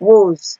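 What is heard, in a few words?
"woze", Nigerian Pidgin, female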